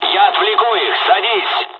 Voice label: dialogs russian; War Thunder